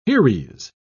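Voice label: "heres", British English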